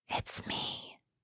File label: asmr girl its me; notification; asmr ringtones